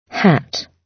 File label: "hat", gb